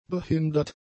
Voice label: "behindert", German; adjectives

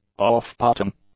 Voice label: "off-putting", english; adjectives

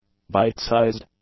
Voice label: "bite-sized", English; adjectives

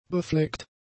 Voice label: "befleckt", German; adjectives

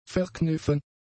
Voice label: "verkniffen", German; adjectives

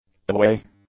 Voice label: "aweigh", English; adjectives

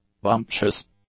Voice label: "bumptious", English; adjectives

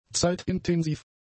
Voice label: "zeitintensiv", German; adjectives

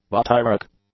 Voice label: "butyric", English; adjectives

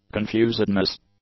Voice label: "confusedness", English; nouns

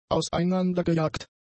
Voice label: "auseinandergejagt", German; adjectives

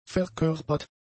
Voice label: "verkörpert", German; adjectives

